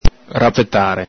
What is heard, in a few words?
"rapetare", Venetian